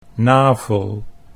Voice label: "navel", Dutch

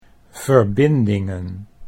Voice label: "verbindingen", Dutch

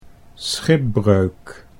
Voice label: "schipbreuk", Dutch

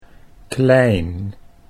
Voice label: "klein", Dutch

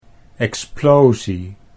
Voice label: "explosie", Dutch

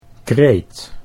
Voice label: "kreet", Dutch

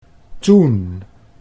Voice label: "toen", Dutch

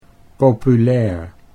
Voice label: "populair", Dutch

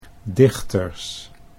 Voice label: "dichters", Dutch

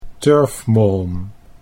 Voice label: "turfmolm", Dutch

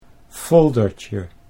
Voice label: "foldertje", Dutch

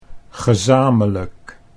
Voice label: "gezamelijk", Dutch

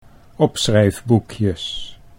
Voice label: "opschrijfboekjes", Dutch

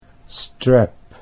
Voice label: "strap", Dutch English

